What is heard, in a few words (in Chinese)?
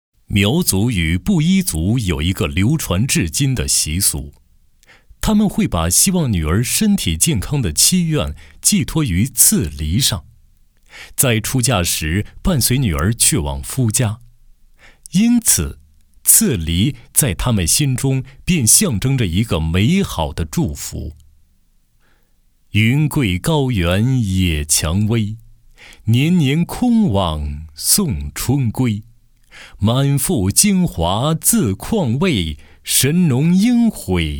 男 品质宣传; 美地源生物